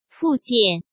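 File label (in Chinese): "附件", Mandarin Chinese; hsk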